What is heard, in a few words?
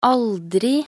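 "aldri", Norwegian Bokmål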